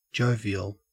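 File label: "jovial", Australian English; pronunciations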